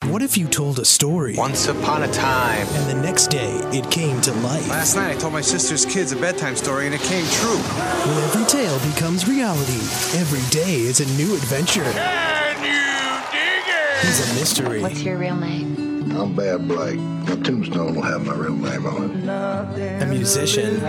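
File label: english american movie trailers, male; american voice actors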